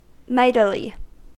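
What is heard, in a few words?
"mightily", US English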